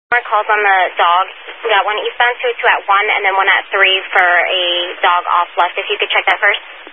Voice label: Highway Patrol, Phoenix Metro East Area, scanner